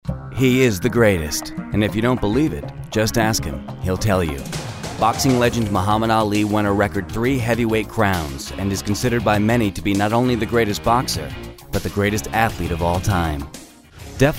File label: narration